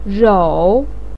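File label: "rou", Mandarin Chinese, third tone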